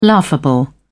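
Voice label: "laughable", British English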